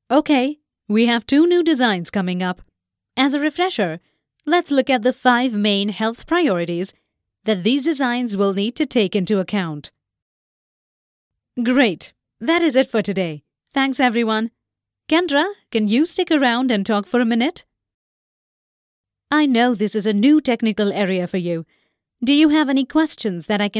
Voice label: Indian-American Middle Aged Female E-learning sample